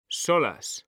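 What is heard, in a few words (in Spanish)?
"solas", male; mekanta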